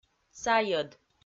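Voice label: "сайӧд", Komi, female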